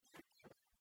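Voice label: "керканым", Komi, female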